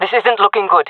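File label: scared, female; jungle fem; agents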